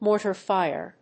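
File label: "mortar fire", English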